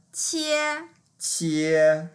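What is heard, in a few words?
"qie1", Mandarin Chinese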